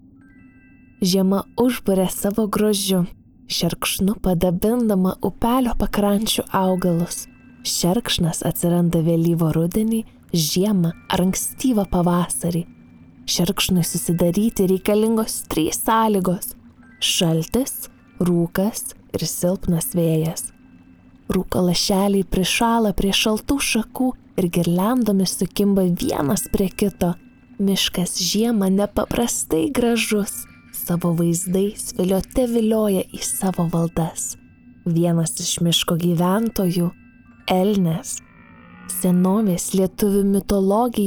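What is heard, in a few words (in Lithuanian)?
Lithuanian documentary; Miškas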